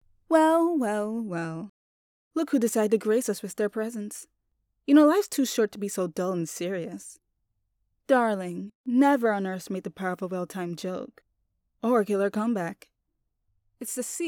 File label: Sassy Female Character